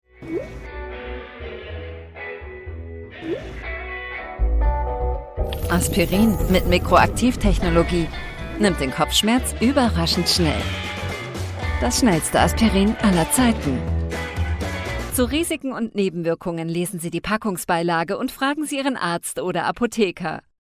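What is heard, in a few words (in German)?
werbung jung lässig; aspirin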